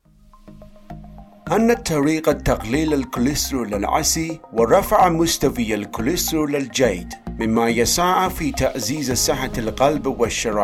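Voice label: General Arabic; GoLocalise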